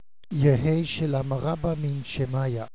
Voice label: aramaic